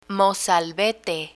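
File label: "mozalbete" Spanish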